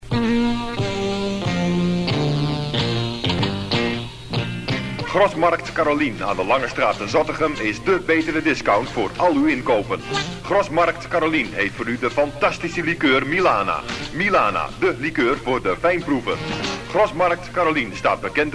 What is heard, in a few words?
commercial